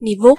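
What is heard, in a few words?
level, Basque; gcompris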